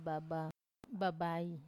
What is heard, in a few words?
"baba babai", older sibling, Wamesa